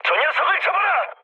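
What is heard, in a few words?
Korean attack position mood med, speech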